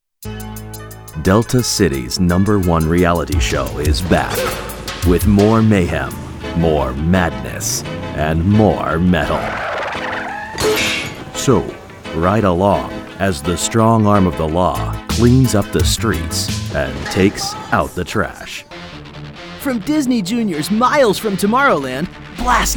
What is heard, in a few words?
TV Promo